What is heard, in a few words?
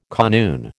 "kanoon", American